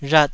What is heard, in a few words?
"rhat2", taiwanese